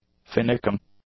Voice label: "finicking", English; adjectives

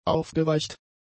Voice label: "aufgeweicht", German; adjectives

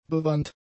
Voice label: "bewandt", German; adjectives

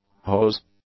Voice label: "hose", English; nouns

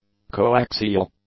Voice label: "coaxial", English; adjectives

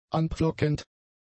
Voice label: "anpflockend", German; adjectives

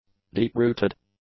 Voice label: "deep-rooted", english; adjectives

